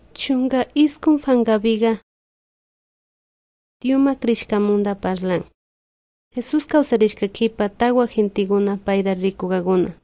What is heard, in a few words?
good news quichua de salasaca; quechua; bible